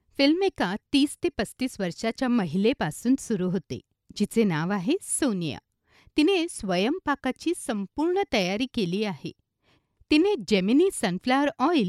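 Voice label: Marathi, female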